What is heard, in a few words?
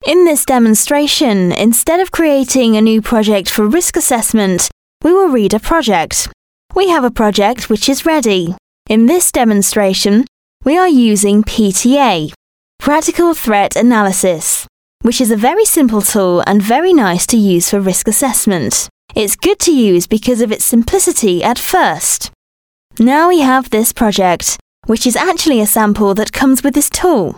UK English eLearning